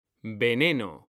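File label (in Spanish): "veneno", Spanish, male; mekanta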